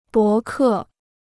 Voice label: "博客", Chinese